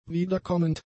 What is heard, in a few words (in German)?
"wiederkommend", German; adjectives